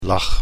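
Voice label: "lach", Dutch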